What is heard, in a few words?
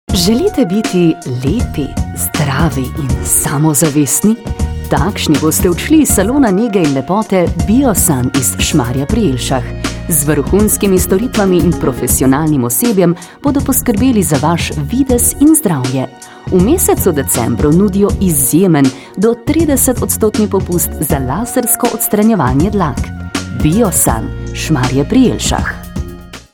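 Slovenian, female, commercial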